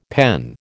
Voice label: "PEN", American